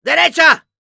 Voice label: head right, es; Demoman

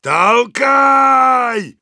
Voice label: cart moving forward offense, ru; Heavy

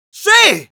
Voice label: cheers, es; Scout

